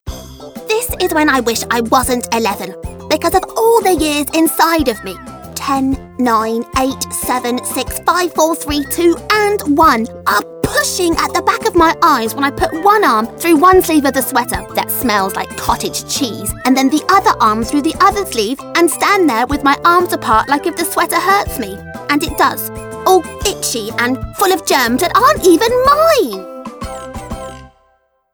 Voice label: Character